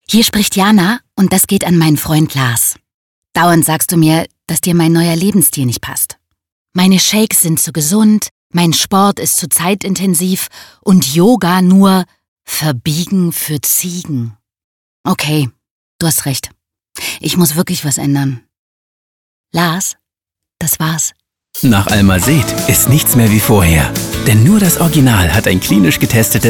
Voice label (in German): Funkspot; Almased; Veraenderung; bunch sound; Berlin; Funkspotproduktion